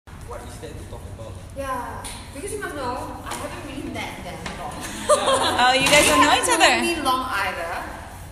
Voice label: Singlish; asia